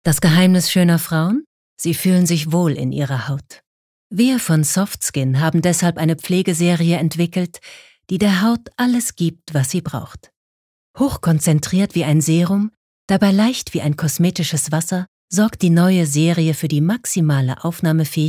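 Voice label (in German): Werbung, Sanft; SoftSkin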